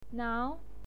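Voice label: "nao" second tone, pinyin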